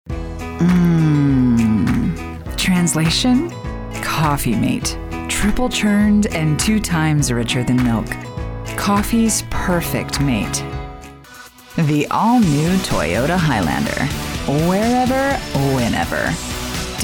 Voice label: Commercial